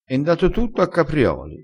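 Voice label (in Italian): "nda a caprioli", dialettale crespinese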